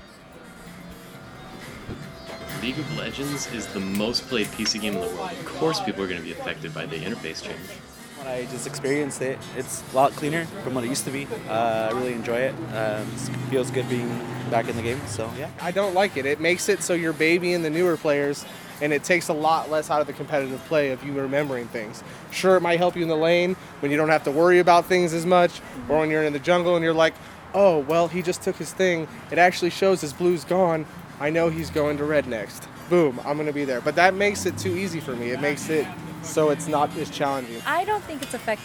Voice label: Voxpop; summer